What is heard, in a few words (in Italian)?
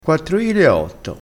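"maraviglia", dialettale crespinese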